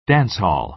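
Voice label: "dance hall", American English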